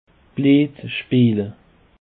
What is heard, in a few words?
"bleed", alsace